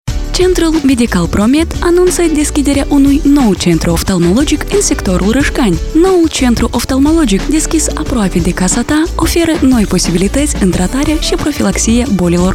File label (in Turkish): Moldovyaca Kadin, seslendirme; kadin sesler